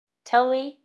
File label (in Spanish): Voice Acting es F0